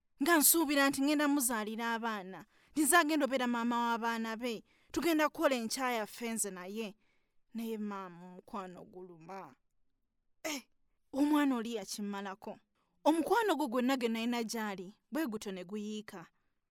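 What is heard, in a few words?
Luganda General; GoLocalise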